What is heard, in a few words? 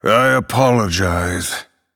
Male Night Elf Sorry